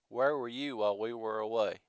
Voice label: male